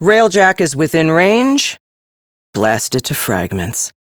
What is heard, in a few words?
Captain Female, combat start, en; Warframe; Railjack; Crp Captain